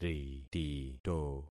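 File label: "ritito", concatenated syllables; Affixing experiment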